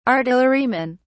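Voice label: "artillerymen", English, British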